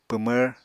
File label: "pwmvr", Apatani